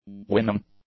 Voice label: "winning", english; adjectives